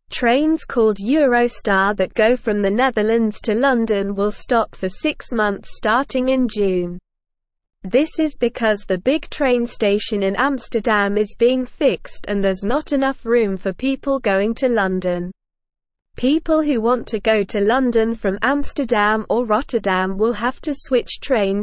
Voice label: English Lower Intermediate SLOW Reading; English Newsroom; No Trains from Netherlands to London for 6 Months